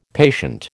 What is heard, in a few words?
"patient", American